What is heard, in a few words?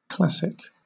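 "classic", British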